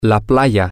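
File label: beach, Spanish, male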